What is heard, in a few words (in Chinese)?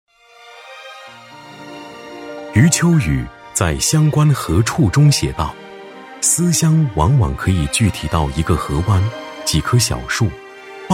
男 纪录片人物【低沉讲述】; 大气主流 高端大气